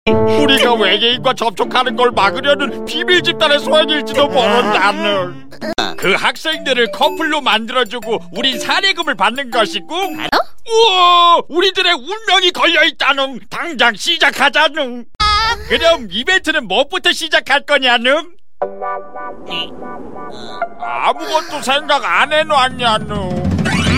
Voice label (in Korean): 20대 순진하고 착하지만 뚱뚱한 오타쿠 둔한; 놓지마정신줄 오덕후